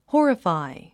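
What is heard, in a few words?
"horrify", English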